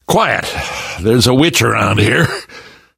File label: heard witch, survivor voice